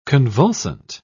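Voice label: "convulsant", British English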